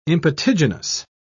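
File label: "impetiginous", English, British English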